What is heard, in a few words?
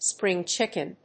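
"spring chicken", English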